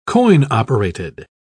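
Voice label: "coin-operated", British English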